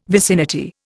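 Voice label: "vicinity", Indian English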